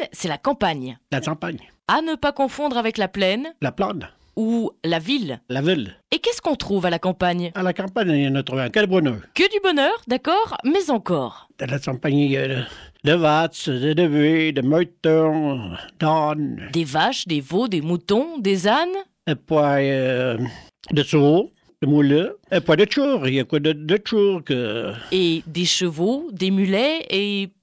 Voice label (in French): dire en patois